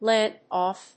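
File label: "lead-off", English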